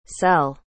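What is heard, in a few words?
"sell", English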